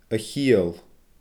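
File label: "a chiall", Scottish Gaelic; darling; goodness, good heavens!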